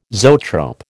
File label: "zoetrope", American